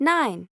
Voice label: English Number